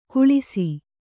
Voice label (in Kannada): "ಕುಳಿಸಿ", Kannada